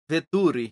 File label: "veturi", esperanto